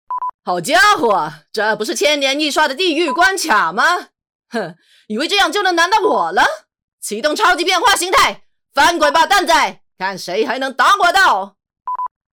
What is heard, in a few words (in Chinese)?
游戏类国语